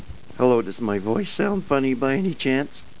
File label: voice sound funny